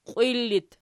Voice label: "qiwil", Archi